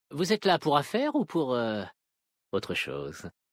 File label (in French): Vulperin Homme Flirt, VF; Affaires